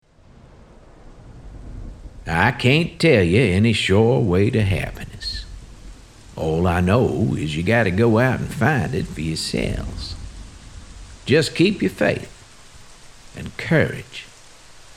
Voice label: Southern